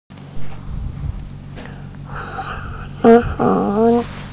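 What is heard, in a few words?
"heng2", Mandarin Chinese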